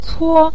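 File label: "cuo" Mandarin Chinese, neutral tone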